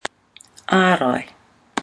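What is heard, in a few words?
"arai", Māori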